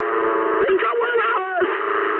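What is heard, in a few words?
aircraft destroyed mood high, speech English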